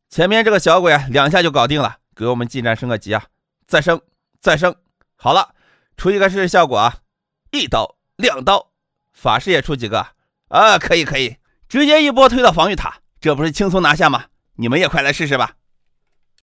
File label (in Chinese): 游戏 信息流 模仿主播 自然